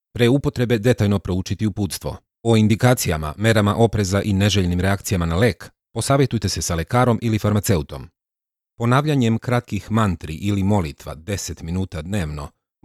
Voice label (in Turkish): Sirpca Erkek, sirpca seslendirme; erkek sesler